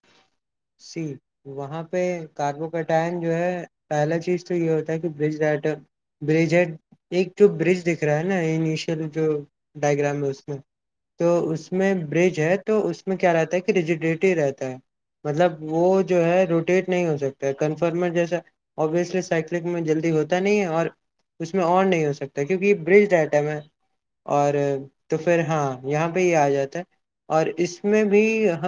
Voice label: voice message